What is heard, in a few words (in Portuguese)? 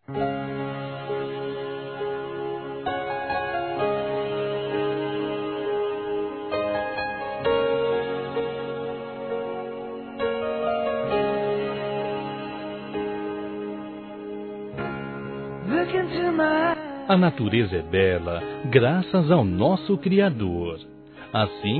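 AGRADECIMENTO ROMANTICO, masculino; Telemensagens; Convites e Agradecimentos; Telemensagens 2017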